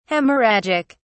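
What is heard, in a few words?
"hemorrhagic", British English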